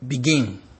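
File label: "begin", Nigerian Pidgin, male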